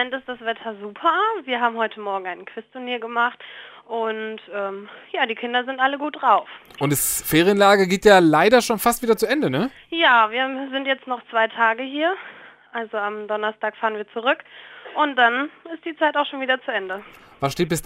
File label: Radio Interview; ameland